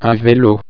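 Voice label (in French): "velo", French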